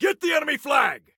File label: Attack Flag, Soldier Male